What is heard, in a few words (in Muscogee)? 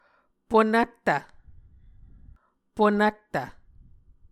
"ponvttv", Muscogee